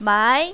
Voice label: "mai2", mandarin, female